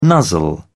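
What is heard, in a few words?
"nuzzle", English, British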